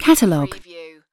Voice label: "catalog", UK female; lynne publishing